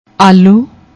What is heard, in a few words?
"alluu", Punjabi